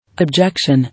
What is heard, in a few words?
"Objection", English